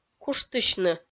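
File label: "куштысьны", Komi, female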